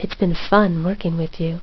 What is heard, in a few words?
Its Been Fun, Cheesy Lo-Fi Sound Effects